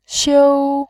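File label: "xiu", Mandarin Chinese, tone 1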